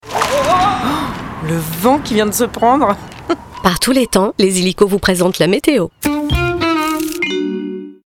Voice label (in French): billboard meteo